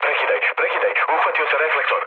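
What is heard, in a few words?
Serbian searchlite mood low, speech; War Thunder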